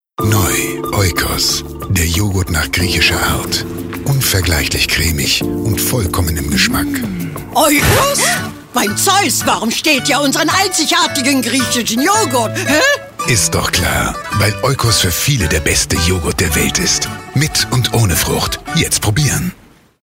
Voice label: male german comercial; ideasonora

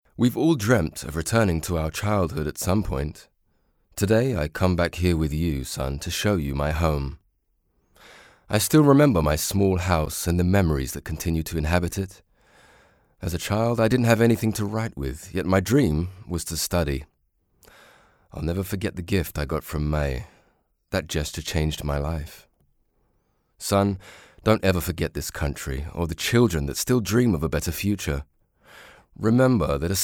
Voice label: male british english comercial; ideasonora

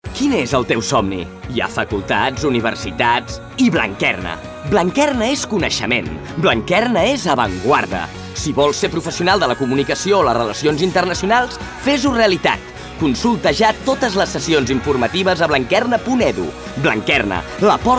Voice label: male catalan study comercial; ideasonora

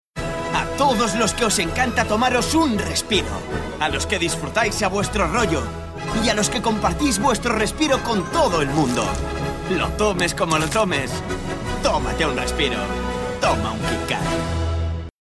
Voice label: male spanish chocolate comercial; ideasonora

